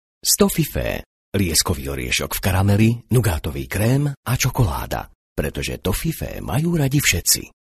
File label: slovakian voiceover locutor eslovaco